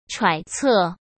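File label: "揣测", Mandarin Chinese; hsk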